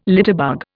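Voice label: "litterbug", Indian English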